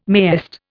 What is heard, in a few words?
"mayest", Indian